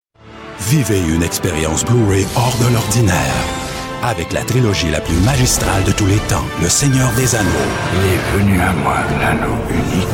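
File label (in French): voix parlée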